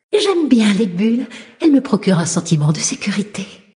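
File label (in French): Female Blague, voix françaises; Sacrenuit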